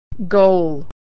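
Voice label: "goal", American English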